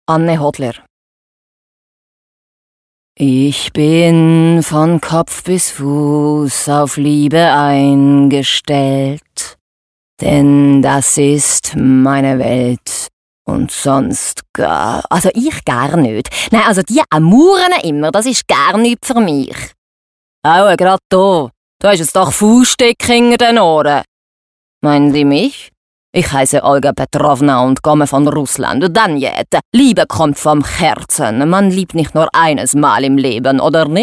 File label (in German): verschiedene Rollen Sprachen und Dialekte Sprachprobe; NJP Tonstudio